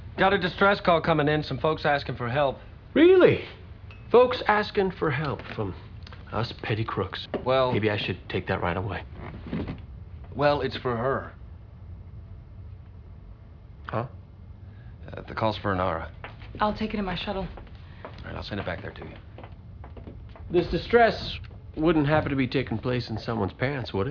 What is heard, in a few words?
distress call; Serenity; Heart of Gold